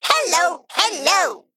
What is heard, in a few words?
Male Mech, ERROR NEED MANA; en; AnnoyO